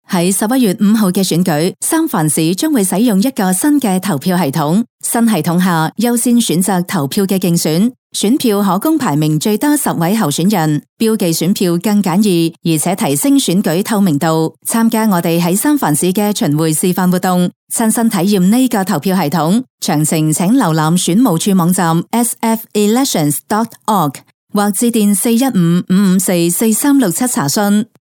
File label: Chinese Cantonese